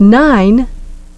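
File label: "nine", English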